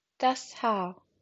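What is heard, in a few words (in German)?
"Haar", German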